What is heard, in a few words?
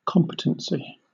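"competency", British English; pronunciations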